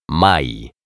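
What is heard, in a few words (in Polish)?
"Maj", Polish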